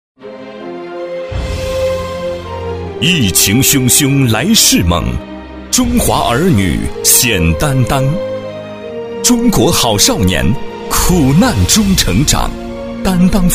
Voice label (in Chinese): 男, 疫情宣传片, 大气激情; 大气宣传片配音类型; 男135